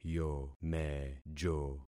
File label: "yomejo", concat syllables; Affixing experiment